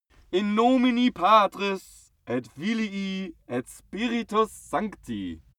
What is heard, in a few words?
male pray latin